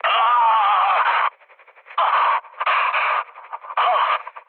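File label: Korean damaged pilot mood high; War Thunder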